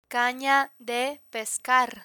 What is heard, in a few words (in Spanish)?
"cana de pescar", Spanish; topics fishing; learn spanish